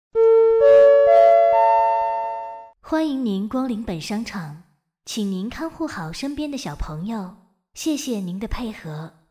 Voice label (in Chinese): 商场广播